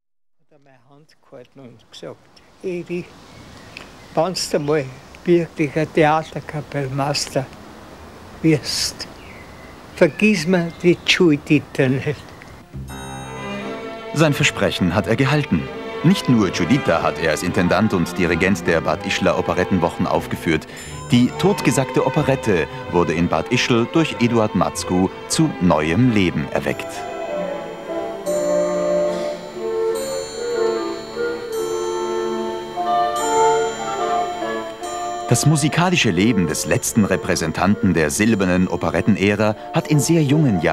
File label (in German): kommentar; ORF